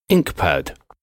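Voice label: "ink pad", English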